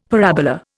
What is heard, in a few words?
"parabola", Indian; Dictionary